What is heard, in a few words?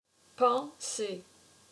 "Penser", French